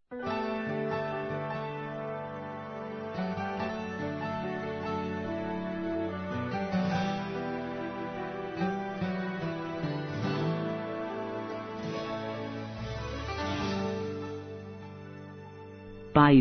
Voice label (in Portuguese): Telemensagens, Aniversários, Fem; ANIV MAE; Telemensagens 2017; ANIV. Evangelico